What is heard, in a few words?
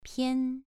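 "pian", Mandarin Chinese syllable, second tone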